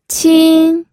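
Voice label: "qin", Mandarin Chinese, first tone; pinyin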